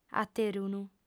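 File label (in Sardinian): "aterunu", female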